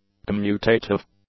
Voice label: "commutative", English; adjectives

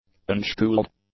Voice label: "unschooled", english; adjectives

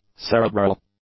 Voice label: "cerebral", English; adjectives

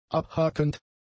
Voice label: "abhakend", German; adjectives

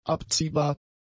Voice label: "abziehbar", german; adjectives

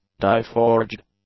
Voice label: "die-forged", english; adjectives

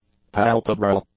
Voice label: "palpebral", English; adjectives

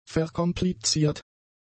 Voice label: "verkompliziert", German; adjectives

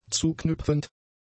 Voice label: "zuknüpfend", German; adjectives

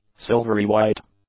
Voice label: "silvery-white", English; adjectives

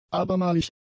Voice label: "abermalig", German; adjectives

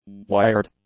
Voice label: "wired", english; adjectives

